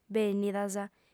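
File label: "bènnidas", lingua sarda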